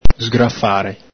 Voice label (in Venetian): "sgrafare", Veneto